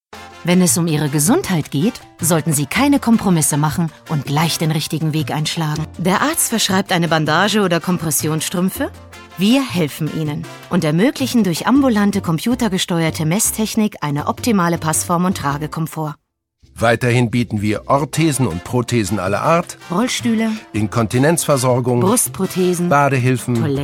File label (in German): Sprechprobe Werbespot